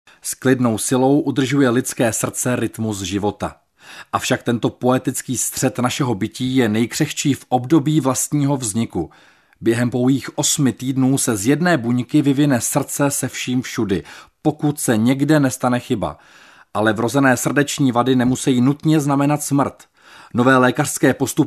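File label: male Czech documentary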